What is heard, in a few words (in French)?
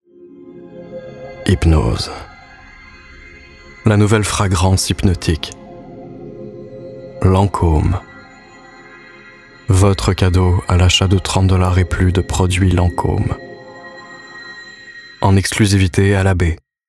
pub ann basse suave; Lancôme